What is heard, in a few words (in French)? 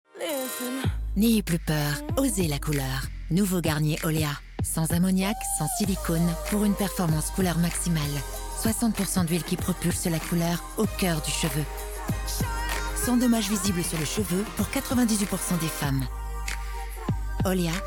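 Spot TV, voix off féminine; Olia Equity; Complice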